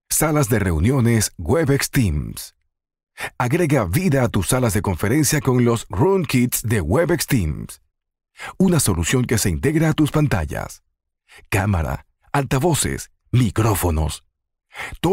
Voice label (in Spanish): locucion e-learning; Cisco Webex Teams